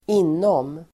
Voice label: "inom", Swedish